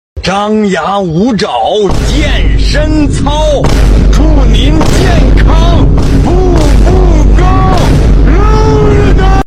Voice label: chinese guy talk with vine boom